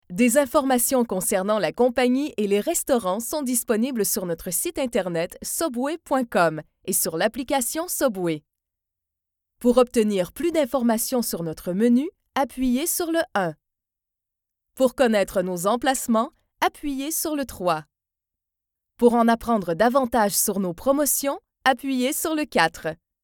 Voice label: tel; Subway